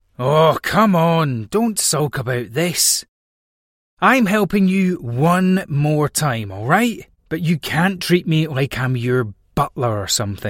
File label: english british video games